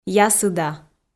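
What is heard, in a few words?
"ясыда", Komi-Zyrian, female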